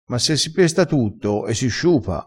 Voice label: "e che vor di", crespinese

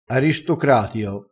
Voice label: "aristocratio", crespinese

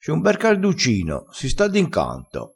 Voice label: "carduccino", crespinese

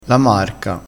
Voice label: "marca", crespinese

